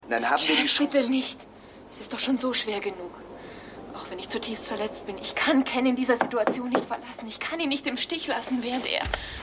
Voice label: Frau TV

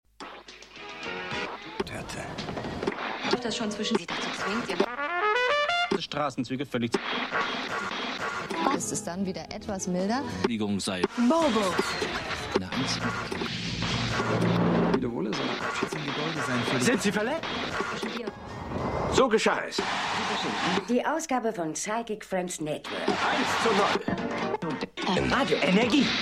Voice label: TV Stimmen; Pleasantville

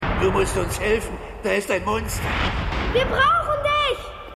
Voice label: Alter Mann Junge Aus Stadt; Hercules

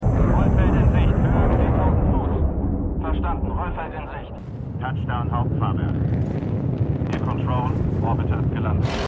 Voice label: Funkstimmen; Stimmproben; For All Mankind

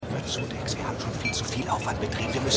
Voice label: Mann; Bosch

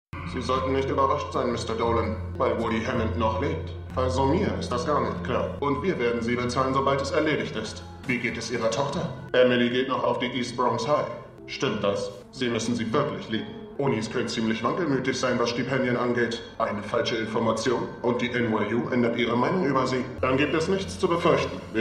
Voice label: Verzerrte Stimme; Falling Water

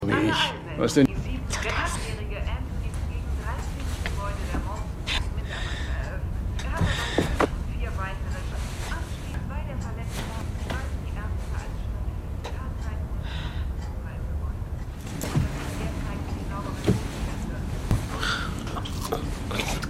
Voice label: TVSprecherin

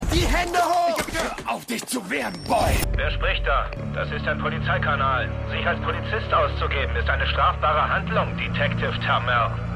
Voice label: Funkstimme; O Malley; Prodigal Son

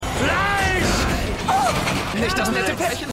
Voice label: Wilder Kunde Wilde Kundin Laut

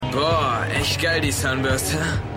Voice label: Junger Mann

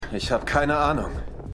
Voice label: Wachmann, Stimmproben

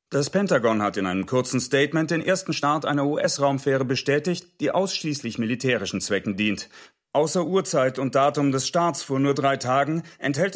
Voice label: Nachrichtensprecher; Stimmproben; For All Mankind

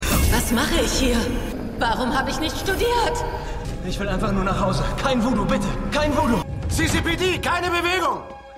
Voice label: Bankraeuberin Bankraeuber Polizist, Stimmproben; The Flash

